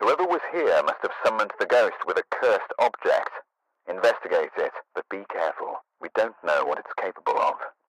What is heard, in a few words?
Cursed Voiceover; Phasmophobia